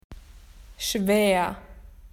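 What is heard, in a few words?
"difficult", Australian English; PictureDictionary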